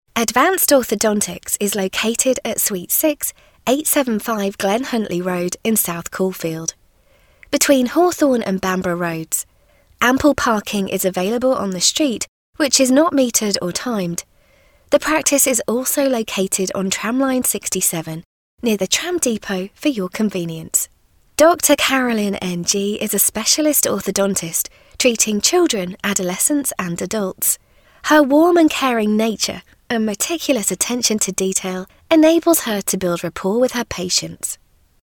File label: UK